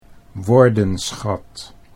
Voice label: "woordenschat", Dutch